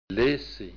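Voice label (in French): "laisser", French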